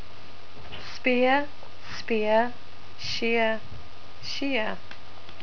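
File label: "spear", New Zealand English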